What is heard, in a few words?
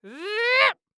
Cartoon Voice "Zip"; AdobeCC